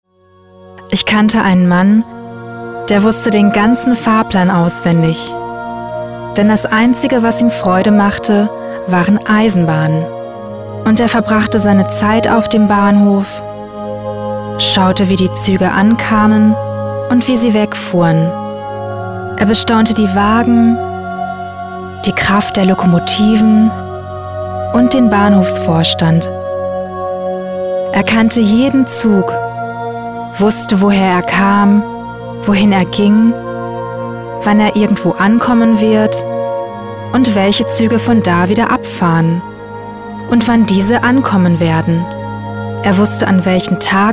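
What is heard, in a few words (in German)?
de hörbuch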